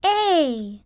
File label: Sound a alpha; The Interactive Alphabet